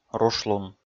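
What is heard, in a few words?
"рушлун", Komi, male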